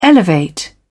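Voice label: "elevate", British English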